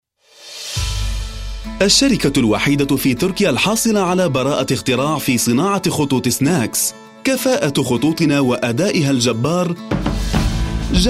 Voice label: arabic corporate videos